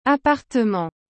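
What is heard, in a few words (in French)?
"appartement", French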